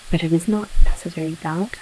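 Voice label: voice acting, es, female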